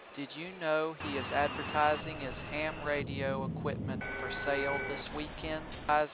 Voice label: noisy changing noises

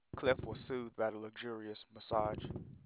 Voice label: noisy Machinegun SNR10

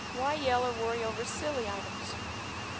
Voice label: noisy SNR5; timit

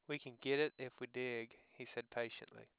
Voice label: Clean male

